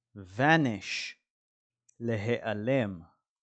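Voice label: "vanish" English, "להיעלם" Hebrew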